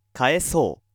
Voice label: male